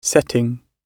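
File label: "setting", British English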